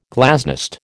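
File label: "glasnost", American English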